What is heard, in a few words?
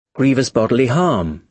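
"grievous bodily harm", British English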